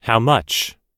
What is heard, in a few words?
"how much", US English